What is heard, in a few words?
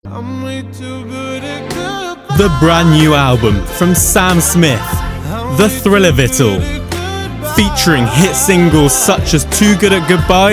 voiceover reel